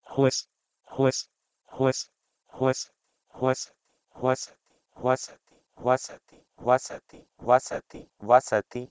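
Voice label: Proto-Indo-European h2wes to Sanskrit vasati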